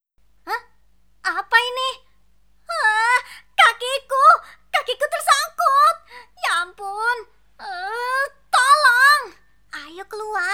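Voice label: Karakter Indonesia, cheerful and energetic voice character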